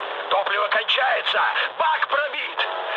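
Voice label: tank damaged, mood med, Russian; War Thunder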